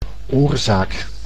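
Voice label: "oorzaak", Dutch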